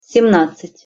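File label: "semnadcat", Russian pronunciation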